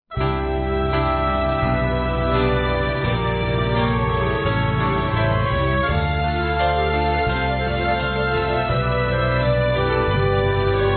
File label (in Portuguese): DESCULPAS MÃE M, Dia das Mães, Masc; Telemensagens 2017